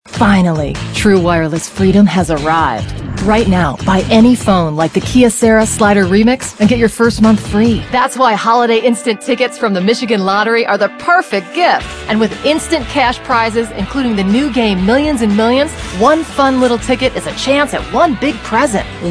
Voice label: female, late 20s mid 30s contemp